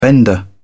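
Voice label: "bender", English, British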